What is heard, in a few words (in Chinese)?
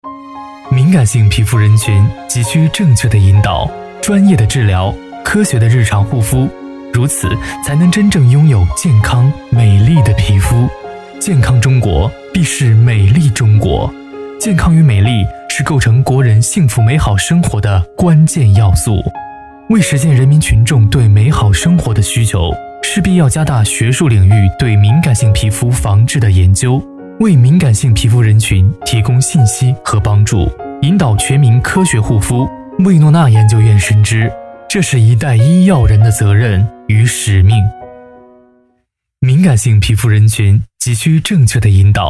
医疗宣传 温暖力量; 医疗健康